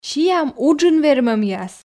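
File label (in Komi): сиам уджын вермӧмъяс, Komi, female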